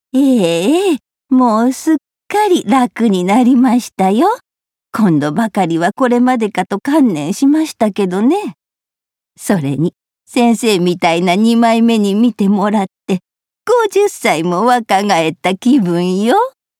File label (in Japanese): 穏やか老人